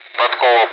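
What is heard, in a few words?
"Podkova", Russian; DCS; ATC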